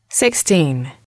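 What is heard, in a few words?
"sixteen", English, female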